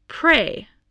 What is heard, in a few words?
"prey", voice, spelling